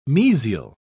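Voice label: "mesial", British English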